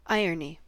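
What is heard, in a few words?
"irony", American English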